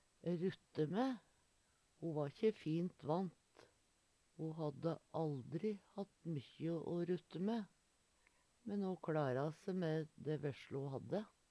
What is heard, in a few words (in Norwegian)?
rutte mae, Numedalsmål; rutte mæ; Eksempel på bruk Ho va`kje fint vant. Ho hadde aldri hatt mykkje å rutte mæ, men ho klara